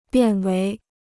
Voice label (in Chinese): "变为", Chinese